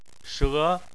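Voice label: "she" tone 2, Chinese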